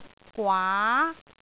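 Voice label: "gwaa2", Cantonese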